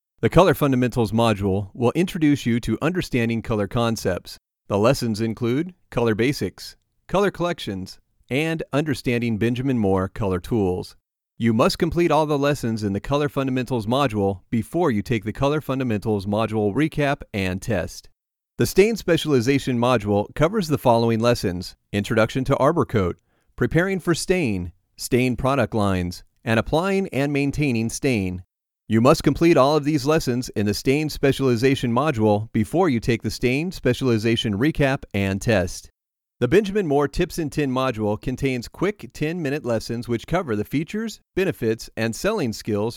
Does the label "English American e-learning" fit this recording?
Yes